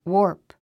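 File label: "warp", English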